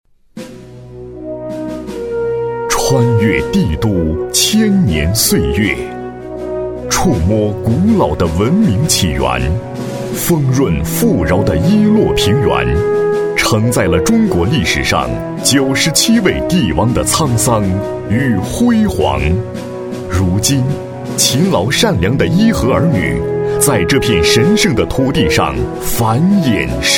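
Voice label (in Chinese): 男国大音-企业宣传片; 伊川滨河新区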